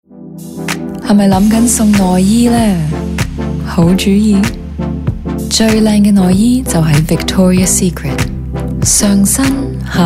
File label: Cantonese; Victoria's Secret